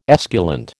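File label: "esculent", American English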